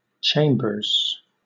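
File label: "chambers", British English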